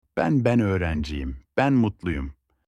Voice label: me in turkish

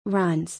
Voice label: "Runs", English

